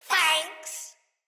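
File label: Death Corrupted Sprouts, en; Dialog; Battle Barks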